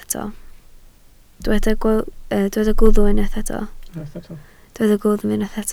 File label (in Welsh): "gwddf", Welsh